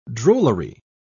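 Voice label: "drollery", British English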